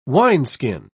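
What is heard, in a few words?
"wineskin", British English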